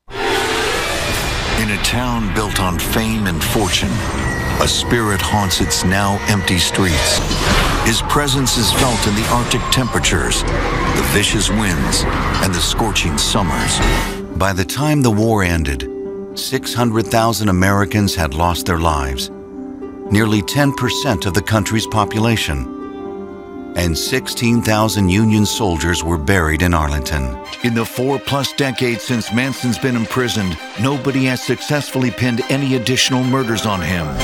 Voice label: narration men